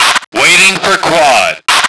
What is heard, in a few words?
male waiting; lmctf